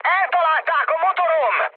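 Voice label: Hungarian damaged engine mood high, speech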